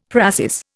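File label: "précis", Indian; Dictionary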